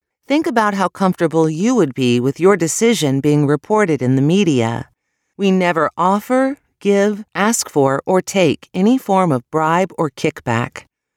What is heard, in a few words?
ELearning Corporate